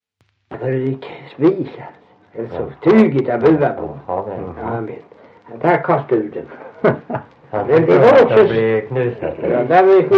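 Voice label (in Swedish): Värö Halland, dialekter; Folkminnen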